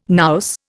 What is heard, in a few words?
"nous", Indian